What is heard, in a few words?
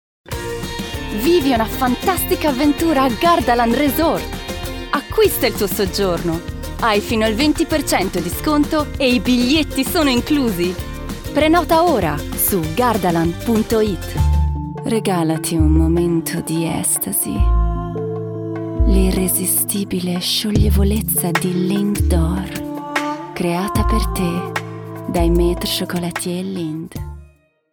Italian